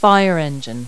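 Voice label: "fire engine", English words; Multi-Lingual Talking Picture Dictionary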